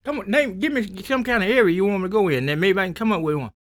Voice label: SPOKEN, Blues Soul, TALK